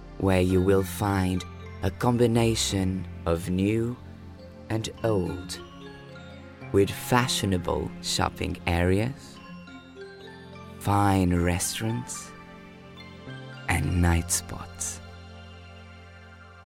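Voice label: Portuguese Europe, Acc EN; GoLocalise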